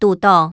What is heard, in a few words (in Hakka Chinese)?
"堵著", 客語